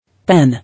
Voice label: "Pen", English